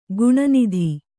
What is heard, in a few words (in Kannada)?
"ಖಿನ್ನತೆ", Kannada